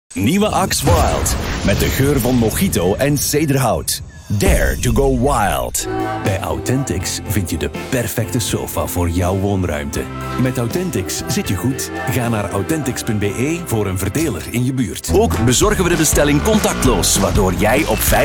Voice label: Flemish Commercial